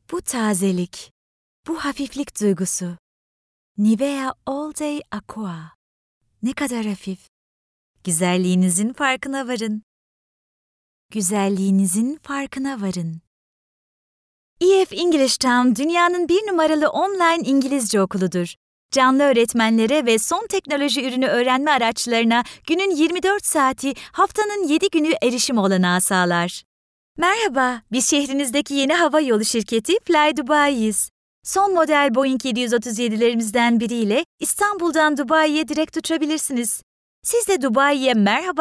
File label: turkish female voices